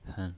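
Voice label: "hen", Mandarin Chinese, neutral tone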